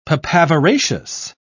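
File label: "papaveraceous", British English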